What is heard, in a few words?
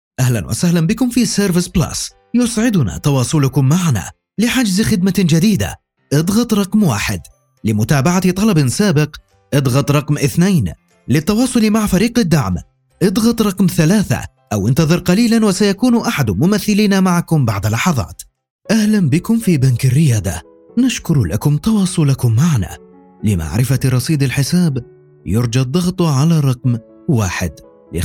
ivr